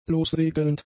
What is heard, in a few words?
"lossegelnd", German; adjectives